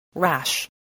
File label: "rash", english